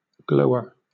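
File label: "glower", British English; pronunciations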